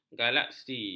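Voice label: "galaksi", Malay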